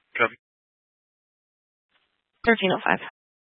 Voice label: Grand Junction Fire Department Dispatch

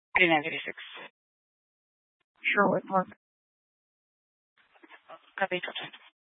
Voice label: Incident Tac 3, Scanner audio recording

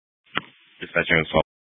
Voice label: Fire Dispatch, scanner

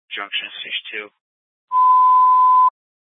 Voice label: Fire Dispatch, scanner

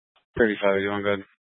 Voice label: Police Dispatch, scanner; Police 1 Dispatch

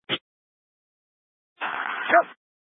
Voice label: Tactical Incidents, scanner

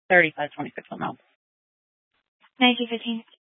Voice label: Police Department Dispatch; Grand Junction

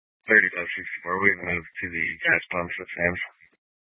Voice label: Police Dispatch; Police 1 Dispatch

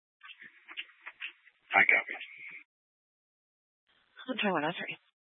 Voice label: Police Department Dispatch, scanner

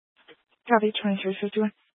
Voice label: Police Department Dispatch, scanner

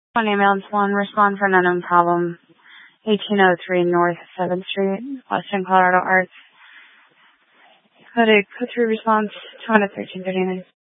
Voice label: Grand Junction Fire Department Dispatch

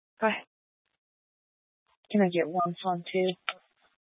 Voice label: Police 1 Dispatch

